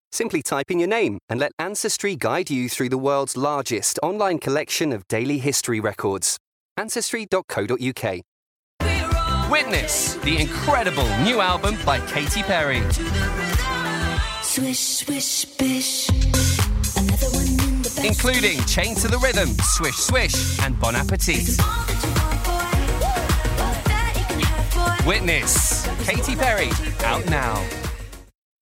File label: Commercial